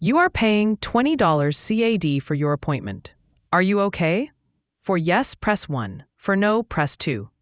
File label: question english; chat